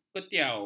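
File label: "kuetiau", Malay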